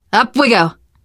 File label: revive friend, survivor voice teen girl; Left 4 Dead 2; Source Engine; video games